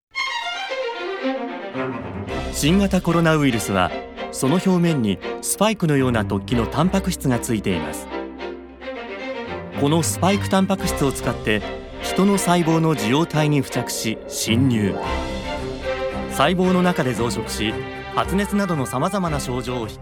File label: japanese medical narration